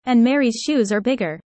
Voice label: user fast; how tall